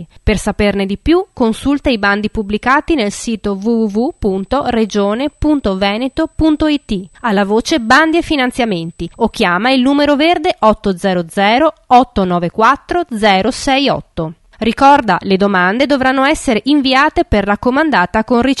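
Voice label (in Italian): spot imprenditoria giovanile femminile; programmi comunitari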